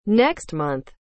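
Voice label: "next month", English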